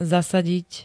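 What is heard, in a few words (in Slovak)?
"zasadiť", Slovak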